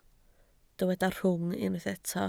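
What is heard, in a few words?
"rhwng" Welsh, spoken word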